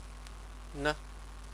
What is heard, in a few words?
"ne", Chinese